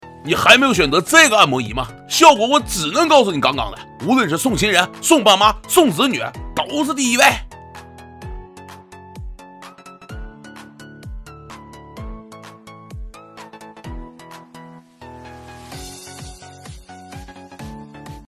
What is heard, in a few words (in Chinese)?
带货